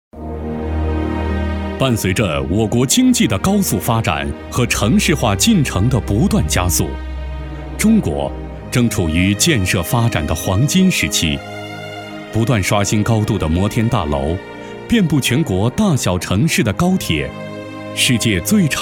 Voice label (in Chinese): 男 温暖大气 宣传片; 财大宣传片